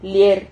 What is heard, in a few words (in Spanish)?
"lier", Silabas